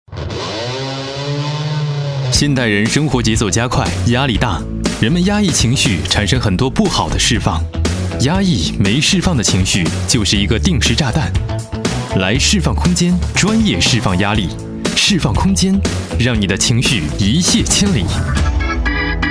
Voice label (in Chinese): 男 广告